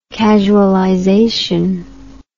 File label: "casualization", English